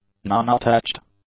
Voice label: "nonattached", english; adjectives